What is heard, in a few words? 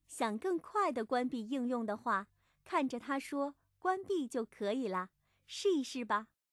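close, Chinese; Windows; HoloShell; teaching moments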